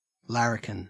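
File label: "larrikin", Australian English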